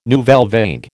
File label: "nouvelle vague", American